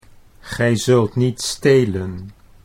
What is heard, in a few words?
"gij zult niet stelen", Dutch